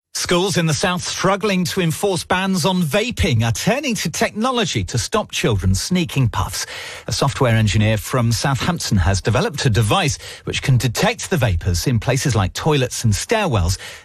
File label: Wave news bulletin